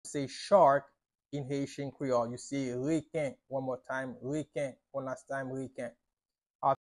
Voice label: "Reken" Haitian Creole pronunciation by a native Haitian Creole tutor; How to say Shark in Haitian Creole